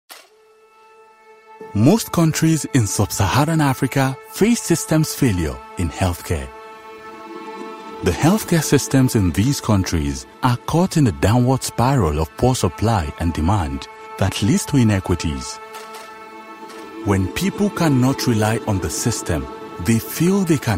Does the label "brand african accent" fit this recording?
Yes